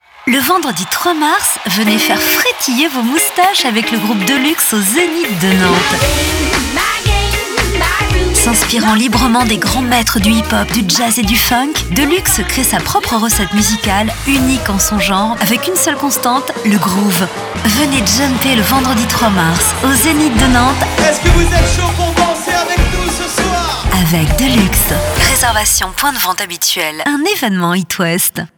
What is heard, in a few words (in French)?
Spot